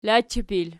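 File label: "lachipil" nominative, Archi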